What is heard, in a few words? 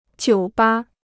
"酒吧", Chinese